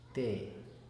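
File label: "te", Teotitlán del Valle Zapotec; uno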